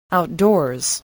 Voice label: "outdoors", voice